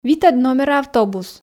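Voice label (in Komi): "витӧд номера автобус", Komi, female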